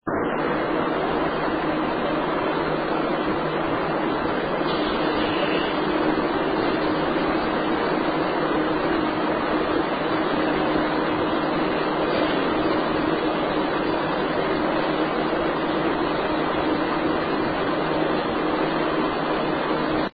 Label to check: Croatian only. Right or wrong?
Wrong